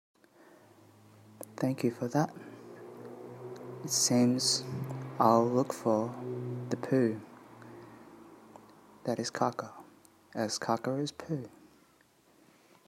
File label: voice message; unsettling scores; proposals from the future